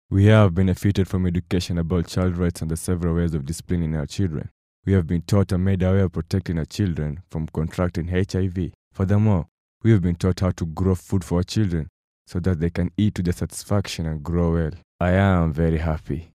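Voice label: English Afrikaans Male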